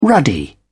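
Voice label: "ruddy", English, British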